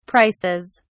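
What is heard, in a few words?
"prices", English, British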